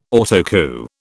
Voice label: "Autocue", British